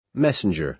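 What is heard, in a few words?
"messenger", American English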